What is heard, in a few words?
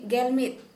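Santali, female, eleven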